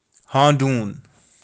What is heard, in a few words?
"haandoon", Kashmiri